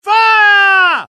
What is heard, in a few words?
alert, dialogue UK; mohaa